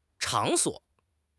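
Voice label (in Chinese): "chang3 suo3", Mandarin Chinese; 汉语口语新时代-初级下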